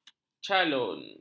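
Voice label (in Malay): "calon", Malay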